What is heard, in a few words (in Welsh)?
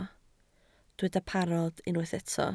"parod" Welsh